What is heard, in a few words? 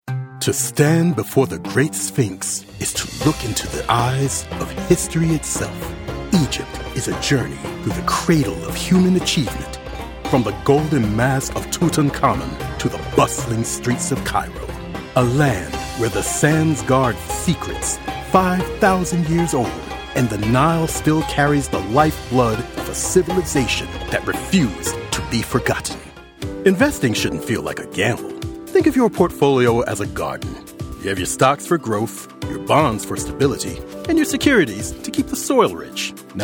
Narration Demos